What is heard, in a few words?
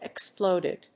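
"exploded", English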